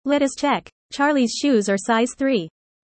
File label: user fast; how tall